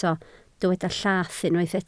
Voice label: "llath", Welsh

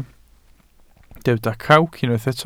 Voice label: "crawc", Welsh

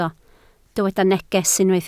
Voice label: "neges", Welsh

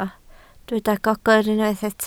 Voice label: "gogr", Welsh

